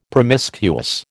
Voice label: "promiscuous", American

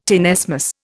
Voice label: "tenesmus", Indian

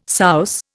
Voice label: "souse", Indian

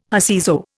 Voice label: "whoseso", Indian

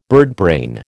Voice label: "birdbrain", American

